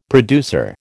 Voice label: "producer", American

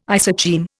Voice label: "isocheim", Indian

